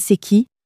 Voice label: "c est qui", French